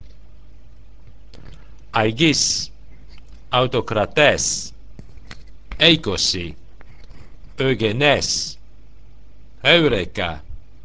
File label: diphthongs, pronunciation